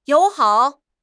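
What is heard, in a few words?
"you3 hao3", Chinese; HSK4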